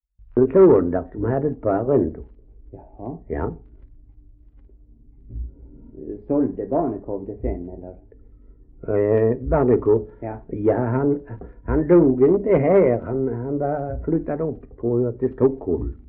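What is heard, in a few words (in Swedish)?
Ausås Skåne, Svenska sagor dialekter; Folkminnen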